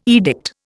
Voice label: "edict", Indian

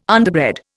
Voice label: "underbred", Indian

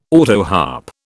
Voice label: "autoharp", British

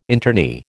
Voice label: "internee", American

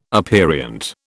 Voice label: "aperient", British

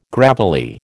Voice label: "gravelly", American

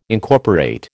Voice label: "Incorporate", American

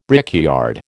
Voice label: "brickyard", American

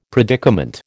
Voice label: "predicament", American

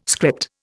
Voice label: "script", Indian

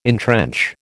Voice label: "entrench", American

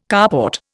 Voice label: "carport", Indian

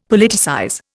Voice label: "Politicize", Indian; Dictionary

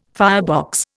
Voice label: "firebox", Indian

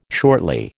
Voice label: "shortly", American

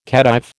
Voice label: "Khedive", American

